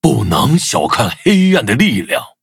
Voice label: loading, zh-hant; cookie run